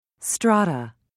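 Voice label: "strata", British English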